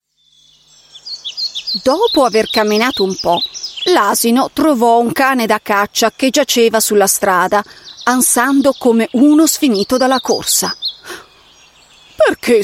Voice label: Italian Female Fairytale